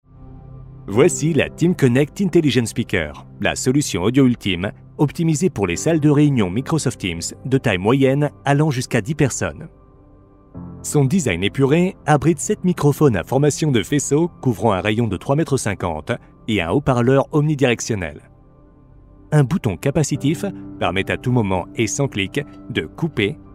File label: tutorial; French; sennheiser teamconnect intelligent speaker